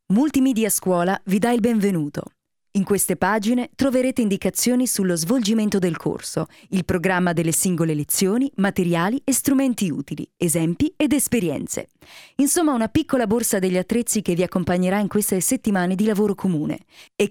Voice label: italian f narration; voice demos